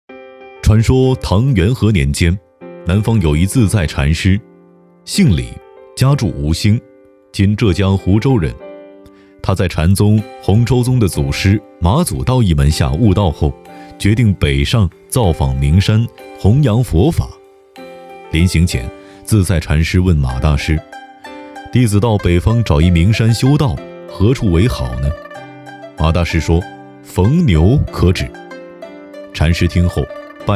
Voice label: 纪录片讲述感中年

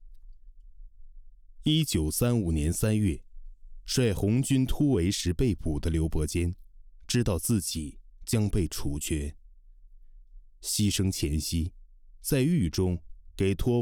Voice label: 旁白

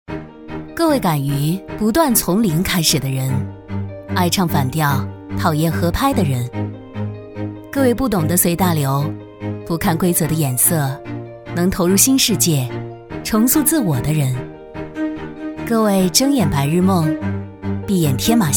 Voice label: 个性广告宣传片; 个性广告宣传片

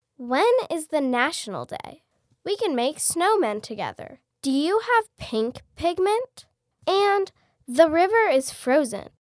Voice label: 真童 英文绘本 女童

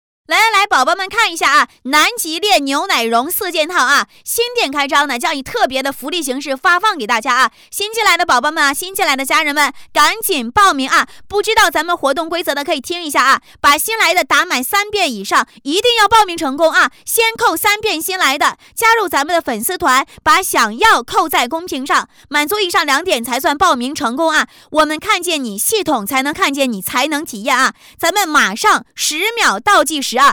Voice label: 直播截取淑女

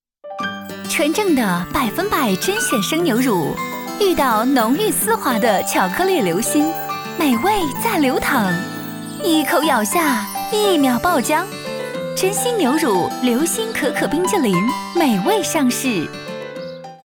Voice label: 品牌 广告 冰激淋

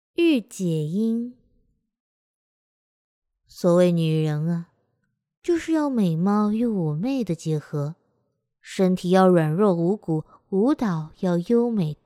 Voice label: 御姐音

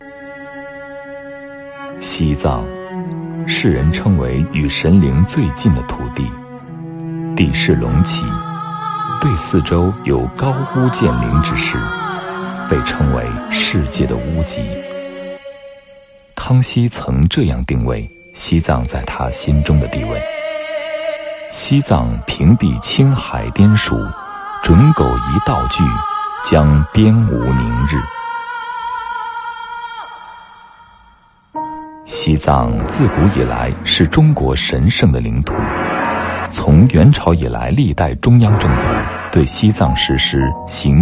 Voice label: 纪录片

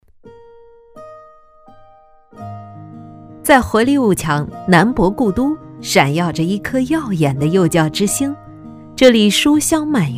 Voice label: 企业宣传活泼轻快; 坞墙镇中心幼儿园

